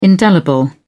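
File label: "indelible", British English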